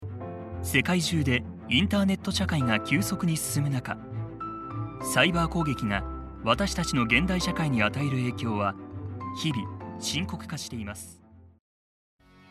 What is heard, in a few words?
Japanese commercial; Japonais; artiste voix off professionnel à Tokyo, au Japon